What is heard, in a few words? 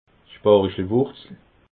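"sparichelwurzel", Alsatian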